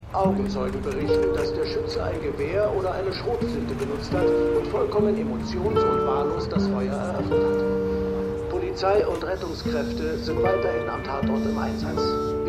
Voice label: Nachrichtensprecher; Slow Horses Ein Fall fuer Jackson Lamb

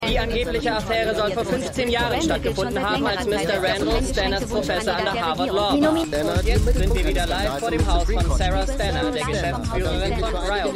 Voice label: Reporter; Scandal; Peter

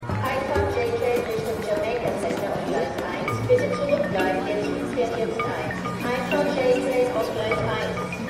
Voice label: Bahnhofsdurchsage; God Friended Me

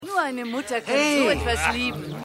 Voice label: Aeltere Frau, Stimmproben; Hercules

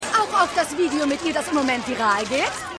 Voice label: Reporterin

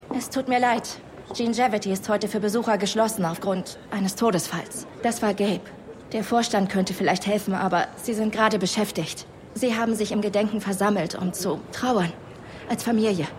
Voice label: Empfangsdame; High Potential

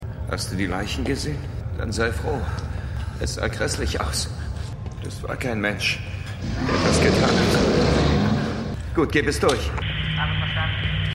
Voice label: Polizist Funk Basis; PSI Factor Es geschieht jeden Tag

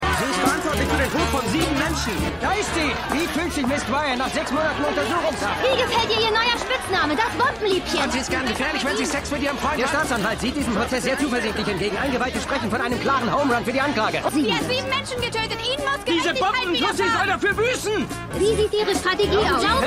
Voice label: Reporter Innen Zwischenrufer Innen; Scandal

